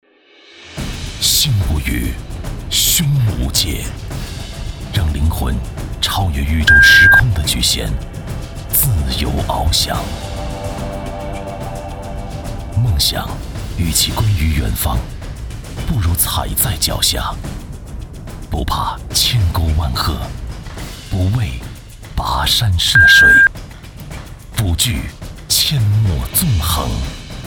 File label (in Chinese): 男 汽车广告 越野摩托车; 西姆尼; 品质走心tvc